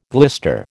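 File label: "glister", American English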